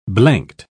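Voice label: "blanked", British English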